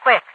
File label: "quick", radiovoice; Garry's Mod; hl2; overwatch